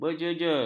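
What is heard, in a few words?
"berjejer", Malay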